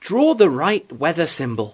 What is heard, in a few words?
"draw", English